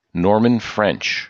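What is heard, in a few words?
"Norman French", us English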